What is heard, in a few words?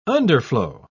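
"underflow", English, British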